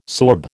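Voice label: "sorb", American English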